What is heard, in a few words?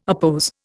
"oppose", Indian